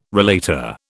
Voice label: "relater", British

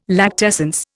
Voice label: "lactescence", Indian

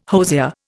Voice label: "hosier", Indian

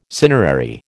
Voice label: "cinerary", American

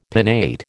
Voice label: "pinnate", American

